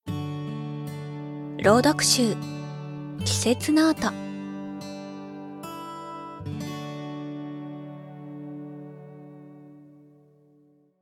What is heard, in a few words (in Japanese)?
タイトルコール BGMあり, 朗読